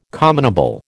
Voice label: "commonable", American